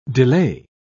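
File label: "delay", English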